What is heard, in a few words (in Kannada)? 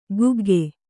"ಕುಗ್ಗೆ", Kannada